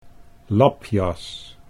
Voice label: "labjas", Dutch

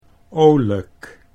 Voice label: "olijk", Dutch

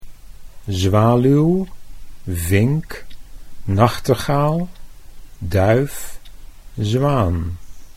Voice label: "zwaluw vink", Dutch

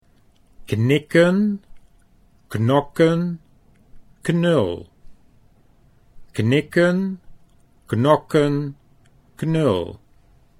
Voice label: knikken knokken, Dutch consonants

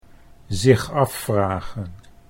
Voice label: "zich afvragen", Dutch

